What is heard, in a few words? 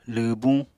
"lwwbuñ", Apatani